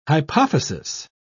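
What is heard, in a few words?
"hypophysis", British English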